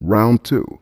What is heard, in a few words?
round two robot; Voice; numbers and letters